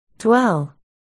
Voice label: "dwell", articulation